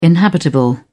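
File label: "inhabitable", British English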